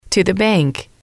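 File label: to the bank, English, female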